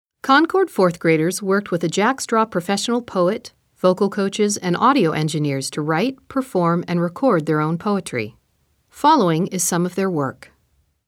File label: 4th Grade Poetry Introduction